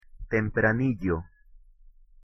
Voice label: pronunciation es tempranillo

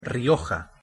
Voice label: pronunciation es rioja

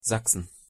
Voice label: pronunciation de sachsen

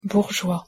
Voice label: pronunciation fr bourgeois

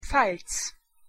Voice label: pronunciation de pfalz

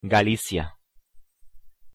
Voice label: pronunciation es galicia

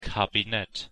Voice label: pronunciation de kabinett

